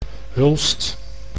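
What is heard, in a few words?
"Hulst", Dutch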